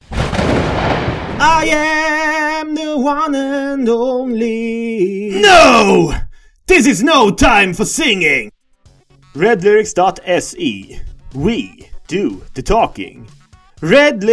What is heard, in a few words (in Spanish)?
Radio comercial